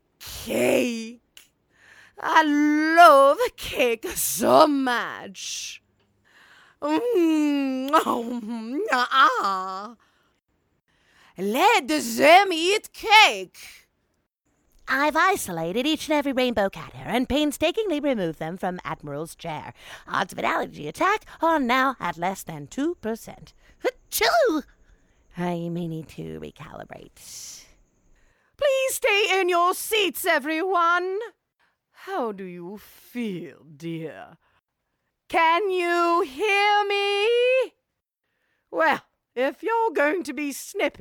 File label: Cartoon VO, Commercial Voice Over Demo; voice overs